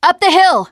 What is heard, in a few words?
generic, teengirl, voice